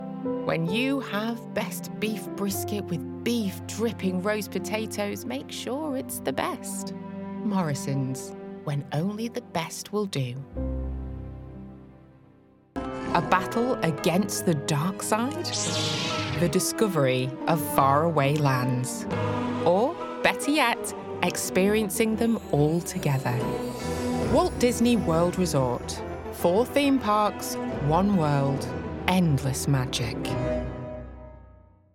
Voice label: Commercials